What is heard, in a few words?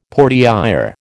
"portière", American